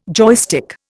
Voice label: "joystick", Indian